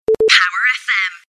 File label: beep beep - female; jingles; power fm